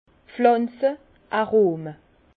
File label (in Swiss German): "pflanze", Bas Rhin; vin; arômes végétaux